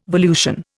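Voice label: "volution", Indian English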